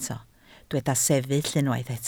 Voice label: "sefyll", Welsh

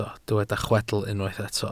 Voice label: "chwedl", Welsh

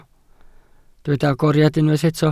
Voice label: "agoriad", Welsh

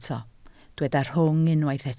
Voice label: "rhwng", Welsh

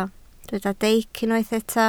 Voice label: "deic", Welsh

